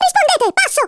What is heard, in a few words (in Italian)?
"Orders", Italian, Speech; Worms Armageddon